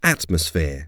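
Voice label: "atmosphere", English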